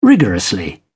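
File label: "rigorously", British English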